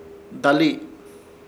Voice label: "Dalii", Ishir Chamacoco; estirar